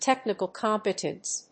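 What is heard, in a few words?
"Technical Competence", English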